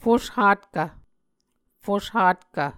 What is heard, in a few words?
"fushvtkv", muscogee